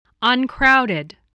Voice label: "uncrowded", English spelling voice